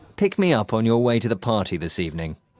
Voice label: polite request